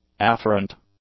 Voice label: "afferent", English; adjectives

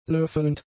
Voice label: "löffelnd", German; adjectives

